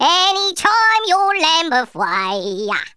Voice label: "grenade", Speech, Geezer; Worms Armageddon